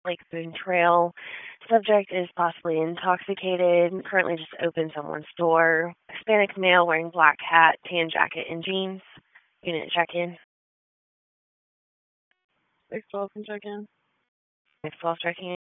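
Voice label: Police Southwest District Dispatch; Raleigh; wake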